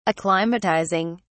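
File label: "acclimatizing", British English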